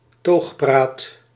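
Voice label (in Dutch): "toogpraat", Dutch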